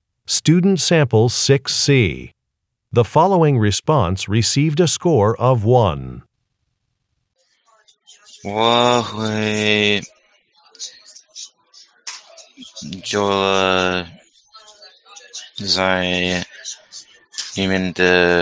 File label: chinese conversation; Conversation 6 Sample C